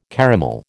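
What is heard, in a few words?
"caramel", American English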